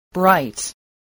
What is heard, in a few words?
"bright", spelling